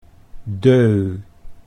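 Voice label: "deux", French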